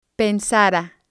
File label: "pensara", spanish